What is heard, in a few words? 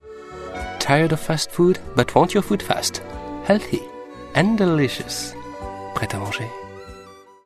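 French, English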